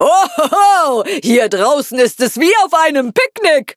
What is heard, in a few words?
loading, de; cookie run